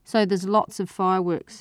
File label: New Zealand sentence; transcription exercises; newzealand sounds